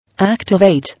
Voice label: "Activate" English